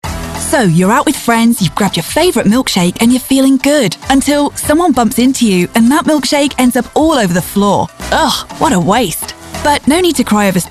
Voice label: female english mobile radio commercial; sky